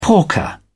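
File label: "porker", British English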